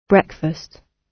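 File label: "breakfast", British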